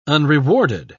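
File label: "unrewarded", English, British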